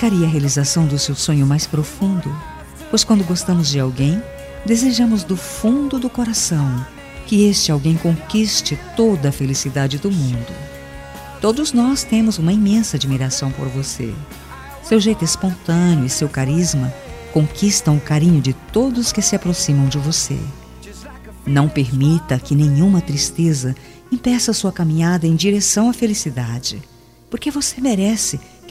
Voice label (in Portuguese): aniversario CUNHADA FEM, telemensagem aniversario cunhada cunhado